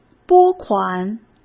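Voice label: "拨款", Mandarin Chinese; hsk; chinese-flashcards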